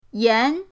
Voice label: "yan2", pinyin